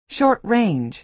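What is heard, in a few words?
"short-range", American English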